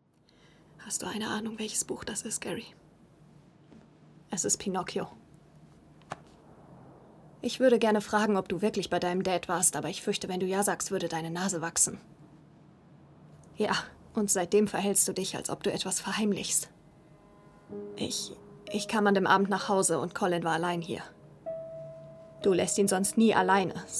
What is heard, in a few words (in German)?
Synchron Drama Trennung